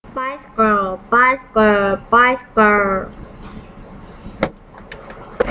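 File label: "bicycle", spoken word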